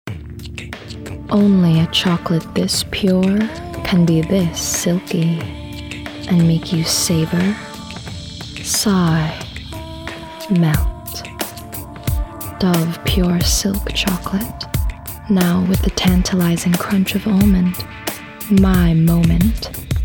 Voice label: Chocolate Commercial